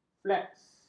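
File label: "fleks", Malay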